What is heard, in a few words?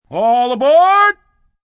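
Train All Aboard, Train, subway, PA, announcements, male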